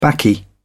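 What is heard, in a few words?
"baccy", British English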